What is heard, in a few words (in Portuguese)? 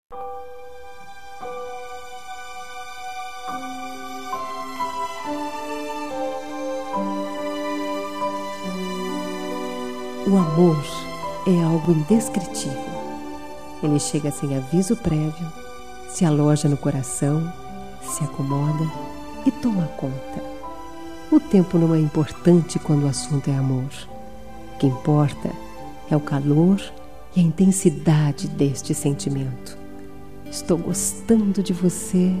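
Namorados pra Namoro Recente, female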